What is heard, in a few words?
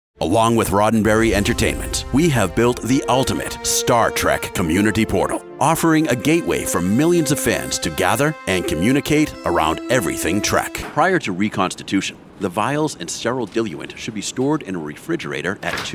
Industrial Narration